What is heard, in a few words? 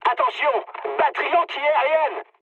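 damaged aa, mood high, French; War Thunder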